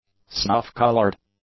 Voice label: "snuff-colored", english; adjectives